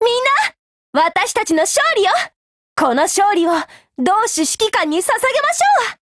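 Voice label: WIN, Japanese, Voice; GF Alarm; M1891Mod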